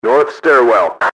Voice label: stair north, enemy english team orders; XBOX